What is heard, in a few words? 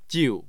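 "jiu", Mandarin Chinese, tone 4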